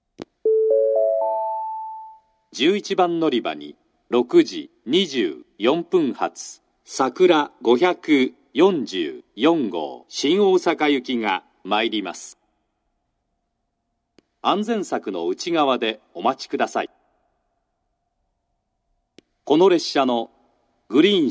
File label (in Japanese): 11番のりば予告放送, 新幹線ホーム; 筑後船小屋; 11番のりば予告放送（さくら544号 新大阪行き）